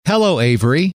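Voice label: "hello", male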